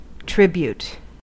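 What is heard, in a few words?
"tribute", US English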